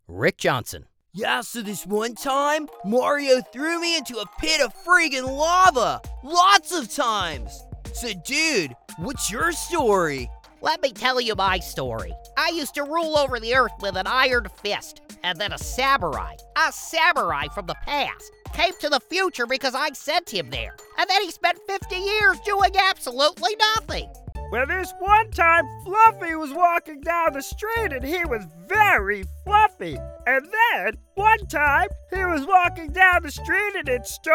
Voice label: Animation

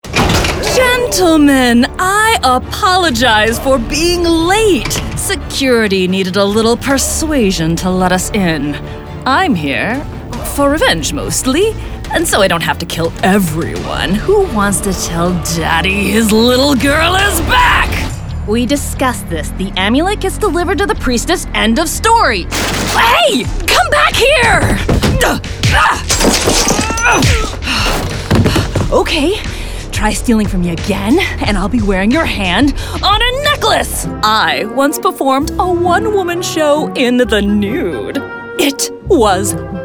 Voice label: vo character

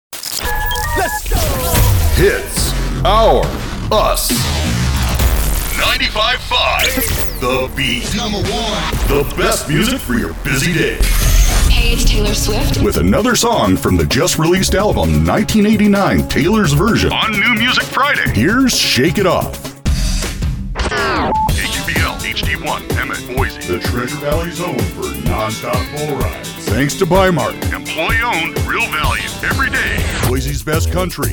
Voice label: Imaging

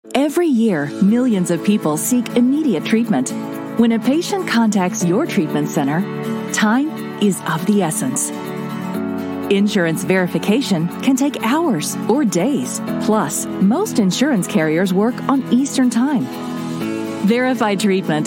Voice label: Conversational; Verify Treatment

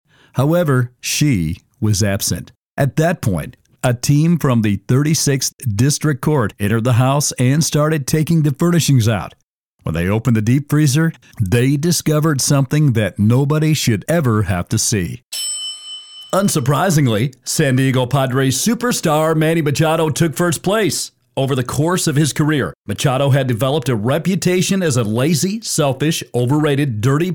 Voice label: Narration Voice

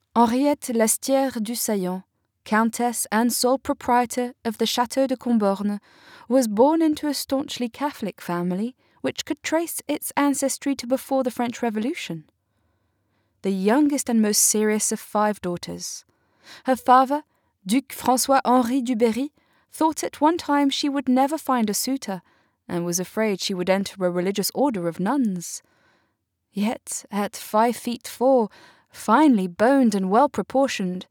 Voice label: historical romance fiction; Let the Peacock Sing

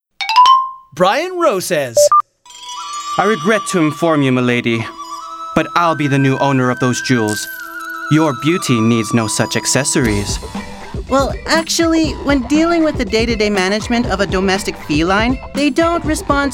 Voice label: CHARACTER; CHARACTER DEMO

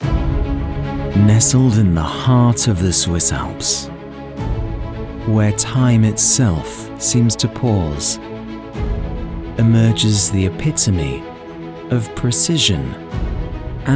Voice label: Luxury Watch Commercial

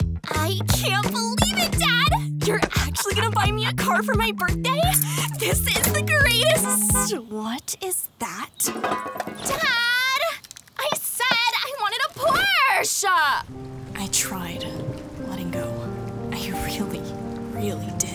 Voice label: Animation

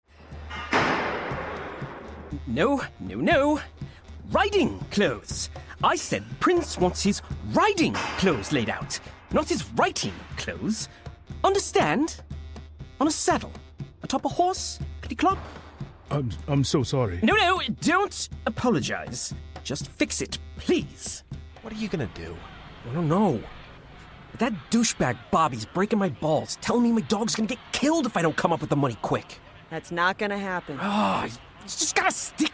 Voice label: Interactive Character

